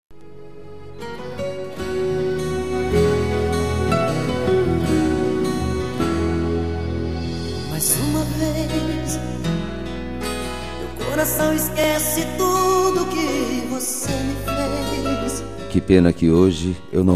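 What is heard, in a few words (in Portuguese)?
Namorados Com Reconciliação, male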